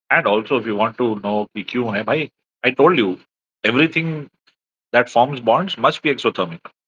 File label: voice message